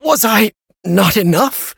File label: hit en